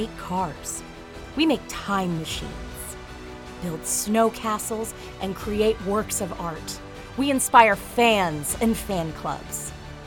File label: Commercial VO